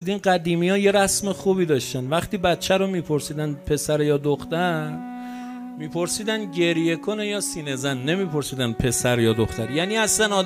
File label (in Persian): سخنرانی; شیخ اسماعیل رمضانی